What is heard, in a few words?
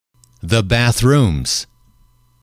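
"the bathrooms", English, male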